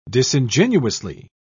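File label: "disingenuously", English, British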